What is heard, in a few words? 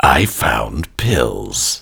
I Found Pills Voiceover